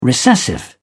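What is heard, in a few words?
"recessive", British English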